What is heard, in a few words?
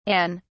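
"Anne", British English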